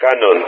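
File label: "cannon", Greek; Weapon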